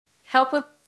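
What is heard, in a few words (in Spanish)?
Voice Acting es F, Spanish, female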